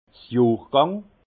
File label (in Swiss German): "johrgang", alsace; vin; millésime